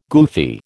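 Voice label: "goofy", American English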